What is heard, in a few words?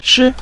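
"shi", Mandarin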